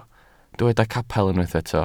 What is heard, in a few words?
"capel", Welsh